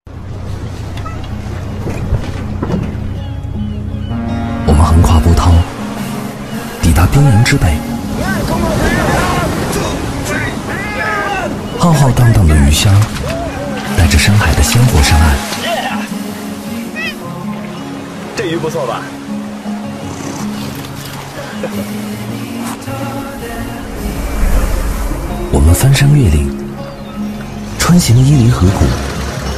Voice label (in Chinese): 男 TVC; 网易严选